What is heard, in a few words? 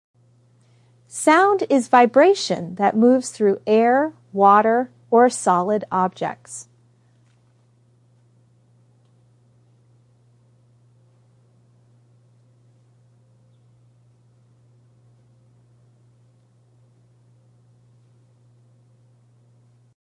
education sound explainer